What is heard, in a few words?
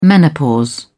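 "menopause", British English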